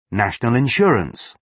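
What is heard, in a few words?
"national insurance", British English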